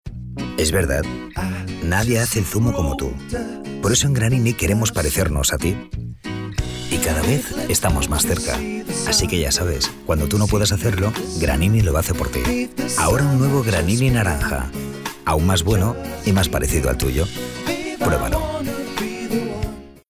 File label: male spanish juice comercial